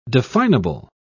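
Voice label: "definable", British English, dictionary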